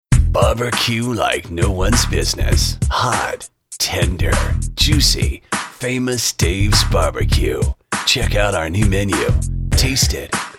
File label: Gritty Cool VO